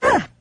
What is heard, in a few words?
"uh" 9 bit arcade lady